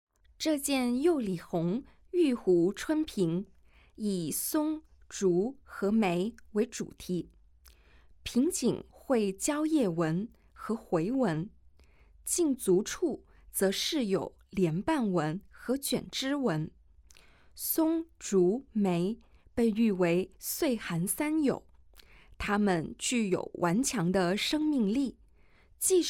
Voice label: Mandarin